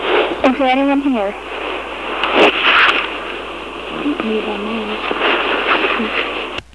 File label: EVP is there anybody here